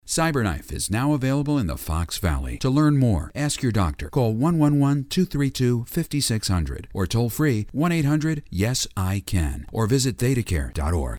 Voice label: Medical, Straight Announce; commercials